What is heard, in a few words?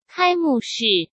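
"开幕式", Mandarin Chinese; hsk; chinese-flashcards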